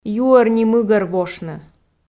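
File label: "юӧр ни мыгӧр (вошны)", Komi, female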